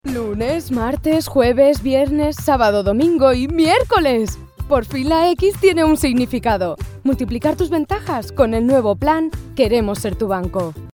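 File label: Spanish, female, commercial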